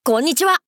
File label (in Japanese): 「こんにちは」, 男の子 王道系少年主人公